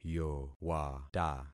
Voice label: "yowata", concat syllables; Affixing experiment

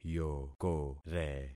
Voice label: "yokore", concat syllables; Affixing experiment

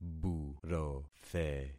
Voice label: "burothe", concat syllables; Affixing experiment

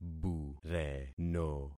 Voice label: "bureno", concat syllables; Affixing experiment

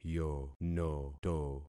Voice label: "yonoto", concat syllables; Affixing experiment

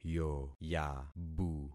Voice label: "yoyabu", concat syllables; Affixing experiment

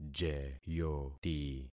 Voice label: "jeyoti", concat syllables; Affixing experiment

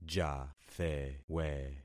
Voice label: "jathewe", concat syllables; Affixing experiment

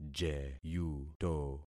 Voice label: "jeyuto", concat syllables; Affixing experiment

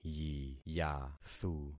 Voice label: "yiyathu", concat syllables; Affixing experiment

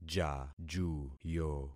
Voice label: "jajuyo", concat syllables; Affixing experiment

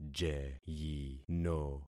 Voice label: "jeyino", concat syllables; Affixing experiment

